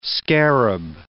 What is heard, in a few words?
"scarab", English